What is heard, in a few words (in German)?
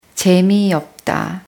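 "uninteressant sein", German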